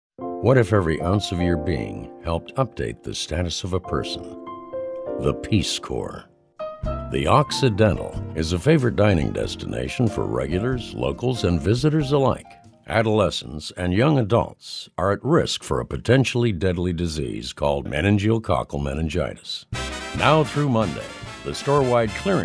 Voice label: Commercial